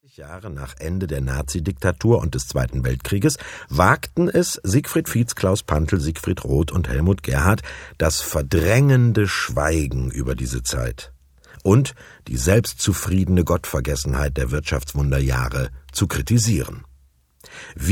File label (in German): moderation